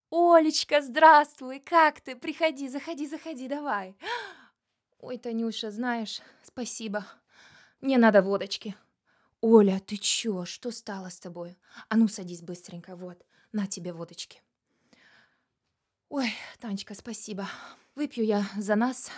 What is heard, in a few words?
female German Russian and Ukrainian Russian comedy dialog; voices; devine voices